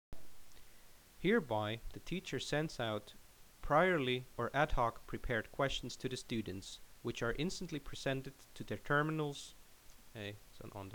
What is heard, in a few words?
Narration